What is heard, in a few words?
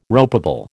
"ropeable", American